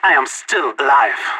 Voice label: last man standing, vo agents; leet

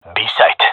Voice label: loc b, gendarmerie male; vo

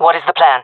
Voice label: request plan, jungle fem; player vo jungle fem; Counter Strike Model Pack; CS:GO

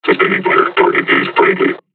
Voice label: taunt, combine charger; Half Life Alyx

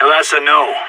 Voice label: negative, sas, vo; Counter Strike; CS:GO

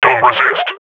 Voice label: firing, combine grunt; Half Life Model Pack

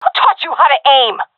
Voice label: taking fire friendly, seal fem; Counter Strike Model Pack; CS:GO

